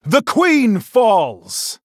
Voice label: Male Night Elf Attack